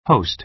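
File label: "host", American English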